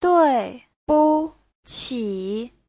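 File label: "duìbuqǐ", Mandarin Chinese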